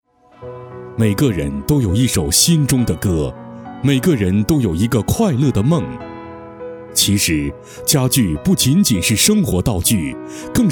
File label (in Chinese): 男 专题 深沉稳重 家居专题